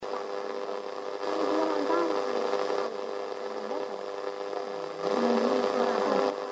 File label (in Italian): voce infante e bambina stonata